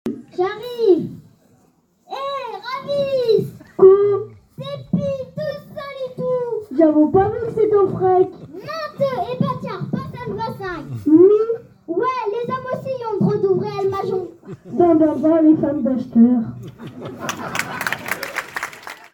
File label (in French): Patois ecole